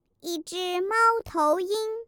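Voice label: "owl", Manx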